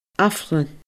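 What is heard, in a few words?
"aifreann", Scottish Gaelic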